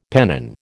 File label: "pennon", American